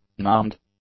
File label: "numbed", english; adjectives